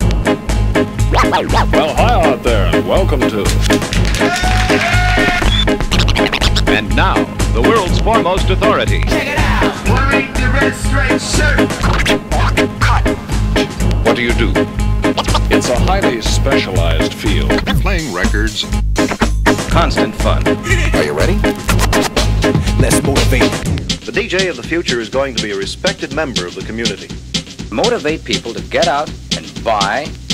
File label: motivational speaker; svencoop; cracklife